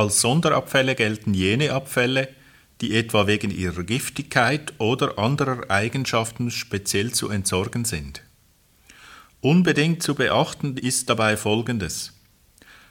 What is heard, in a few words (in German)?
abfall deutsch